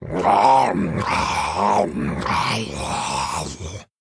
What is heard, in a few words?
Male Murloc Idle